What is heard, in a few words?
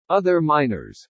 "other minors", english